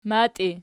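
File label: "matii" nominative, Archi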